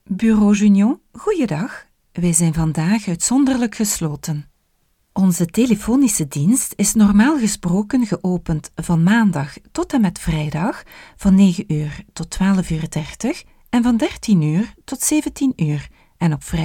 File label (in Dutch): Vocaliz, voice over; Bureau Jugnon; NL